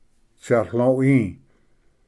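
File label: "tsatloi", Cherokee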